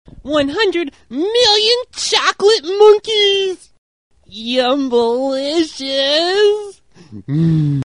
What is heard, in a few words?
monkeys, voice